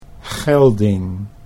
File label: "gelding", Dutch